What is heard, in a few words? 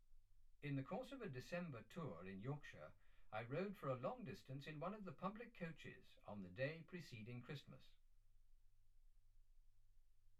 male speech english